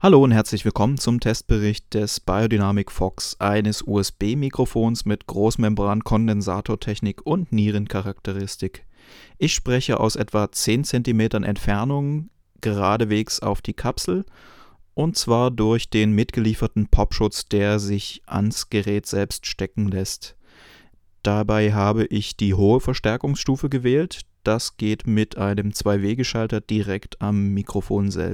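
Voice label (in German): beyerdynamic FOX test sprache male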